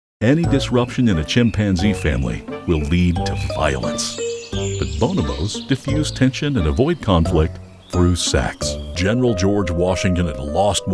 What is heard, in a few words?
Documentary Narration